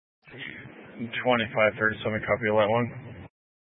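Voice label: Sheriff Dispatch, Scanner audio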